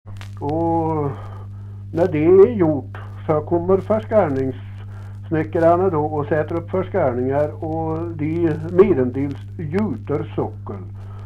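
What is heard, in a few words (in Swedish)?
Lerdal Dalsland, dialekter; Folkminnen; Svenska sagor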